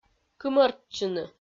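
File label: "кымӧртчыны", Komi, female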